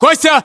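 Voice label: "konstantin", battlechatter, Russian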